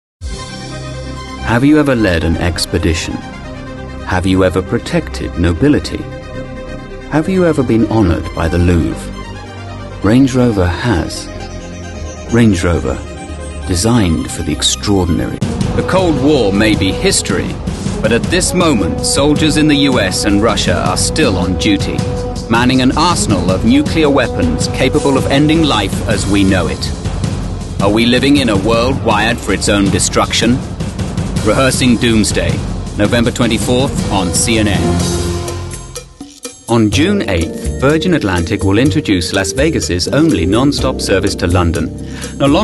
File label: British